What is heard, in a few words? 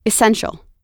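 "essential", American English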